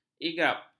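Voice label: "igap", Malay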